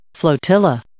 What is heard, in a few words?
"flotilla", English